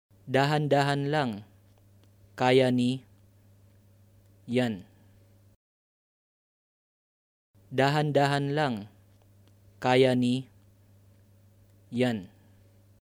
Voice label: Take it easy! (Name) can do it, Tagalog